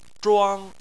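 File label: "zhuang" Chinese, first tone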